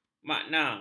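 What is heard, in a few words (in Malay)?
"makna", Malay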